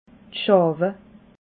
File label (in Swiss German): "schawe", alsace